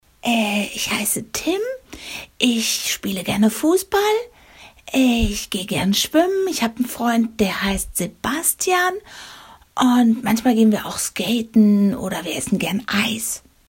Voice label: female german kids comercial